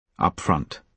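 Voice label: "upfront", British English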